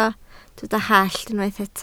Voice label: "hallt", Welsh